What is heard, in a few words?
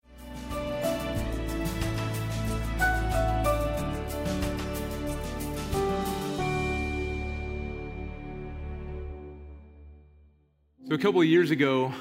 Sermon English